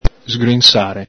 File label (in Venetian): "sgrinsare", Veneto